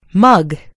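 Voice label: "mug", British English; gcompris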